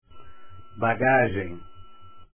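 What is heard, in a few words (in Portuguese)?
"bagagem", Portuguese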